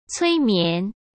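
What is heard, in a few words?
"催眠", Mandarin Chinese; chinese flashcards; hsk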